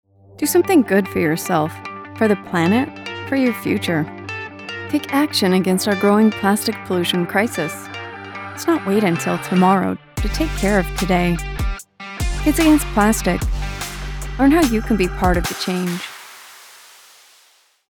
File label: Youthful Indifference Fry; PLASTIC